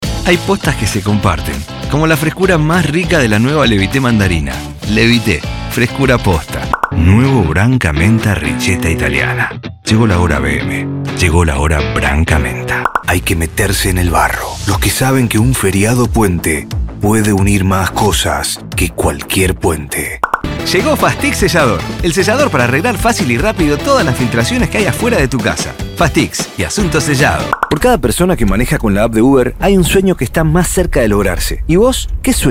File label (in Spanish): nota rioplatense